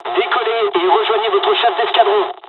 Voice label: takeoff, dialogs french; midway us; War Thunder